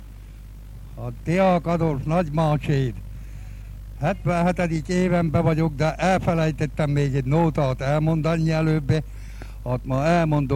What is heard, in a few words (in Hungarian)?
nyelvjaras gyujtesek